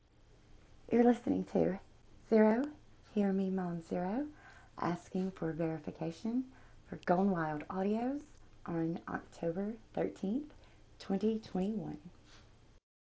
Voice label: Female; GWA Verification Request